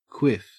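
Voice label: "quiff", Australian English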